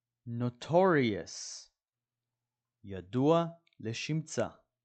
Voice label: "notorious" – "ידוע לשמצה"

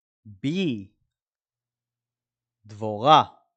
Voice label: "bee" – "דבורה"

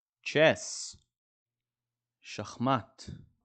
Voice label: "chess" – "שחמט"

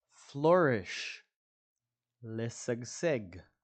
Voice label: "flourish" – "לשגשג"

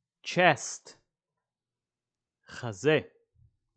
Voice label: chest – חזה

